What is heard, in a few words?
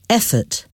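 "effort", English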